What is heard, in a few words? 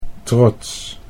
"trots", Dutch